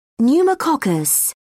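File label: "pneumococcus", British English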